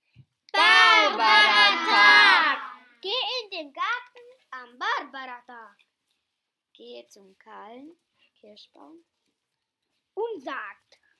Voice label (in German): Gedicht, Primarschule; Barbaratag-Gedicht